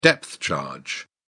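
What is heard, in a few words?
"depth charge", English, British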